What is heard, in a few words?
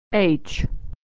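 "h", American English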